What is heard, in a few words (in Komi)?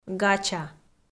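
"гача", Komi, female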